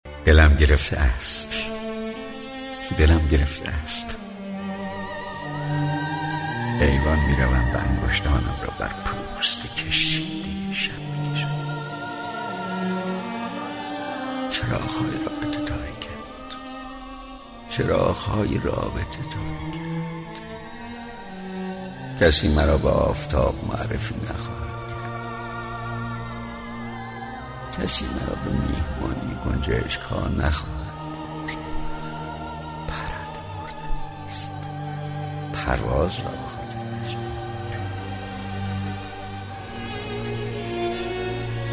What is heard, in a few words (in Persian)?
دکلمه شنیدنی با صدای; دکلمه شنیدنی پرنده مردنیست با صدای خسرو شکیبایی